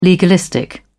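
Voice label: "legalistic", British English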